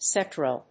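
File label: "Sectral", English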